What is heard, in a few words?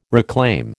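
"reclaim", American English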